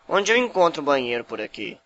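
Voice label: toilet, Brazilian Portuguese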